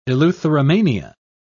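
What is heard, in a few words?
"eleutheromania", British English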